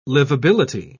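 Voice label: "livability", British English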